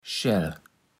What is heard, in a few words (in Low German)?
"Schäll", Platt